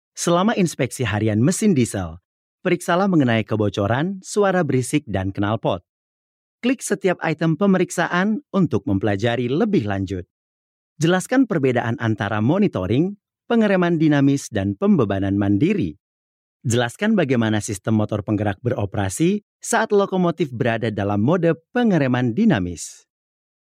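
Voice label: Indonesian male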